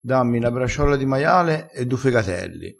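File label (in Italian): "braciola", dialettale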